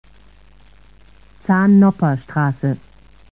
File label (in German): Ansage Haltestelle Zahn Nopper str; SSB AG